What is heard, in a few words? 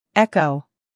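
"Echo", American English; sounds-of-american-english; everyone-can-use-english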